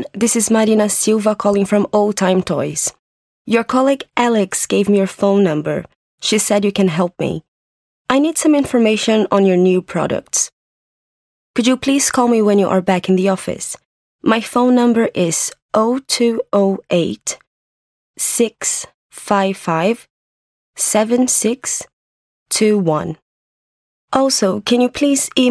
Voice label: A voicemail message